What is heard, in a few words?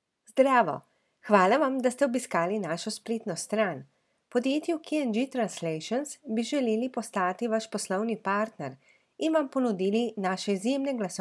slovenian female